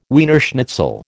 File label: "Wiener schnitzel", American